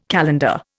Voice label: "calendar", Indian